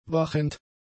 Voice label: "wachend", German; adjectives